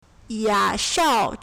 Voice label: "ya3shao2", Chinese; 雅韶 yǎsháo; proper noun; A town in Guangdong

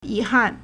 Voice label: "yi2han4", Chinese

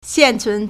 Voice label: "xian4cun2", Chinese

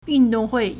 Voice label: "yun4dong4hui4", Chinese

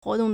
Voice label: "huo2 dong4", Chinese

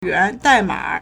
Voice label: "yuan2dai4ma3", Chinese

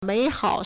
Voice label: "mei3hao3", Chinese

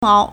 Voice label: "mou2", Chinese

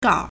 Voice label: "gao3", Chinese

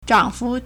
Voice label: "zhang3fu2", Chinese

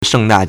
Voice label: "sheng4da4", Chinese

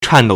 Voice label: "chan4dou3", Chinese; 颤抖 (顫抖) chàndǒu; verb; to shudder; to shiver; to shake; to tremble

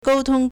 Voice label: "gou1tong1", Chinese

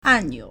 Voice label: "ànniǔ", Chinese; 按钮; 按鈕; button

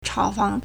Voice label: "chao3fang2", Chinese

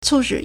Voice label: "cu4 shi3", Chinese

